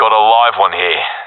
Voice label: sees one enemy, professional epic; vo; Source Model Packs; Counter Strike Model Pack; CS2